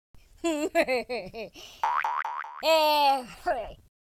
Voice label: taunt, Drill Sergeant speech

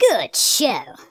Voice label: "amazing", speech; Biggles

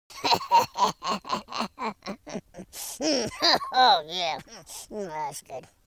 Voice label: happy, War General; speech

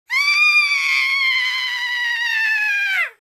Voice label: scared

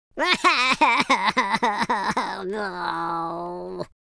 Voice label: sad

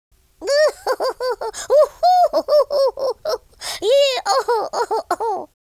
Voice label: happy

